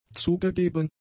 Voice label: "zugegeben", German; adjectives